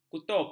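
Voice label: "kutub", Malay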